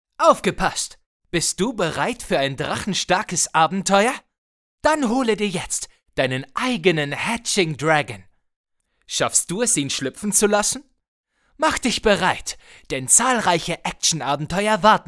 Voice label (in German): Werbung